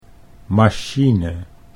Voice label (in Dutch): "machine", Dutch